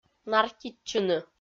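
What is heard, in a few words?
"нартитчыны", Komi-Zyrian, female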